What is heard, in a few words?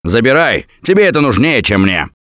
Alert Give Item Stop, russian; Gambler